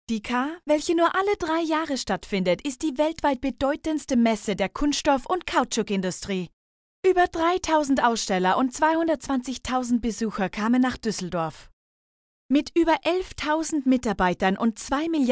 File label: GERMAN